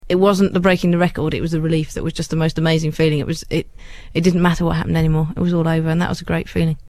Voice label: mystery voice